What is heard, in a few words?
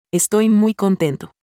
Spanish happy